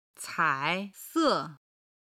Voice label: "cai3 se4", chinese